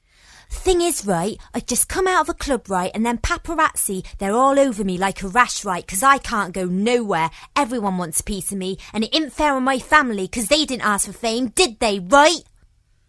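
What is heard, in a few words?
west country accent, female voiceover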